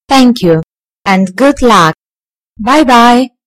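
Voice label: "thank you", British English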